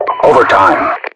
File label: overtime, RU; mpvoice